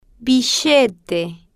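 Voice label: "billete", slow, female, Spanish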